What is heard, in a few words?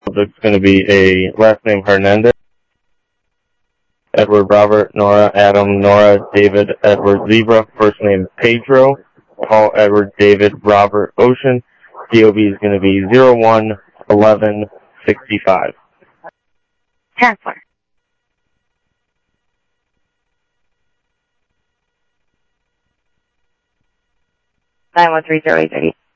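Sheriff East County, scanner audio trunk; MCSO - EAST; South Scottsdale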